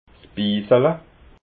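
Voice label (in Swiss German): "bisala", Alsatian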